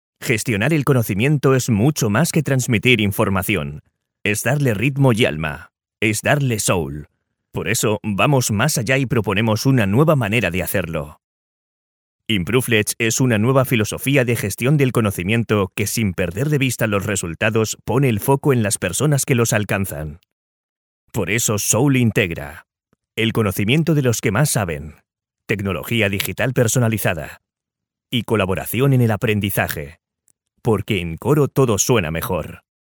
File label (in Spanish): Masculino